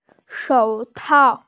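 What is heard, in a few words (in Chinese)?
"shou3tao4", Mandarin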